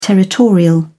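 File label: "territorial", English, British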